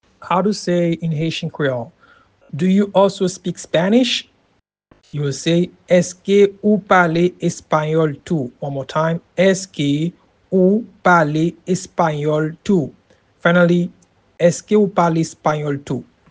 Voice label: Eske ou pale espayol tou, Haitian Creole; Do you also speak Spanish